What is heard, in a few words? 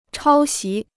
"抄袭", Chinese